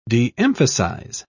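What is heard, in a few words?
"de-emphasize", en, British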